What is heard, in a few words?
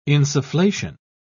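"insufflation", British English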